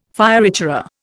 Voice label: "fioritura", Indian

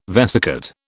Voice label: "vesicate", British

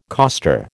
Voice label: "coster", American

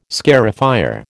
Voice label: "scarifier", American

